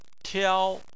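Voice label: "tiao", Chinese, first tone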